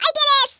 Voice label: "fireball", Hispanic; Worms Armageddon

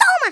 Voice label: DRAGON PUNCH, Portuguese; Worms Armageddon

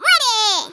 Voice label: watch this, Hispanic; Worms Armageddon

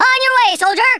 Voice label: go away, Drill Sergeant; Worms Armageddon

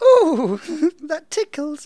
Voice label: "bungee", Speech; Worms Armageddon

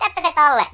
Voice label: "take cover", Finnish; Worms Armageddon

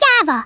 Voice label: "coward", Hungarian; Worms Armageddon

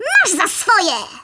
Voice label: REVENGE, Speech, Polish; Worms Armageddon

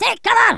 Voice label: "Take cover", Angry Scots; Worms Armageddon

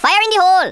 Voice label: "fire", Rasta

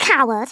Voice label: "coward", Scouser

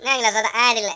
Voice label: "you'll regret that", Finnish; Worms Armageddon

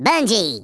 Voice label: bungee, Redneck

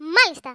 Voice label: "YES SIR", Greek; Worms Armageddon

